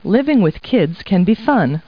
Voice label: people, kid